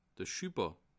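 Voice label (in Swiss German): "schubber", Bas Rhin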